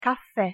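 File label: "caffe", Italian pronunciation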